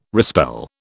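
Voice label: "respell", British English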